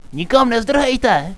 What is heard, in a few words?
position, radio